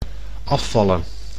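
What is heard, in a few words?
"afvallen", Dutch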